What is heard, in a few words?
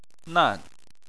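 "nan", Chinese, fourth tone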